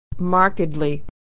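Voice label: "markedly", American English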